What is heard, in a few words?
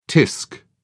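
"tsk", British English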